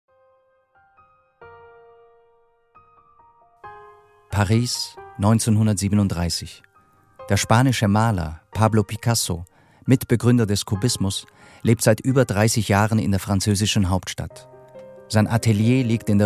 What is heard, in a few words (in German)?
Doku; Pablo Picasso